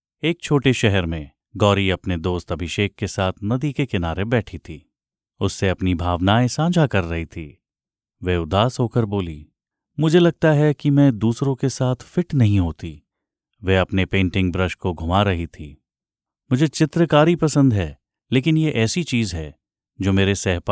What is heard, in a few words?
hindi audiobooks